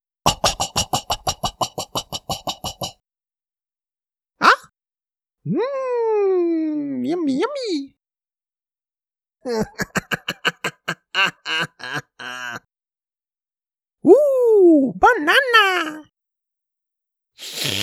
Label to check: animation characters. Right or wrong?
Right